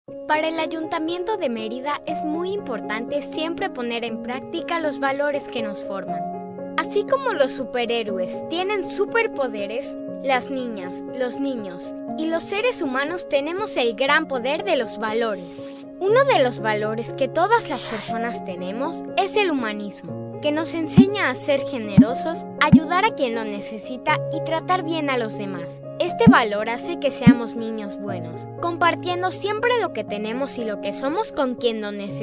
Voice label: spanish mexican narration